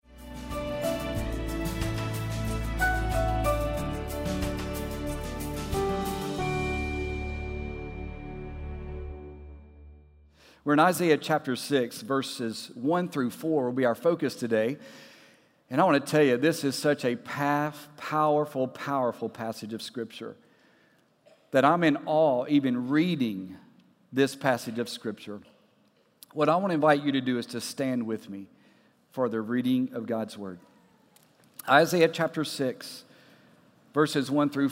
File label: Sermon English